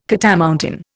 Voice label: "catamountain", Indian

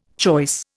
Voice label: "choice", Indian

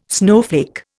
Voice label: "snowflake", Indian

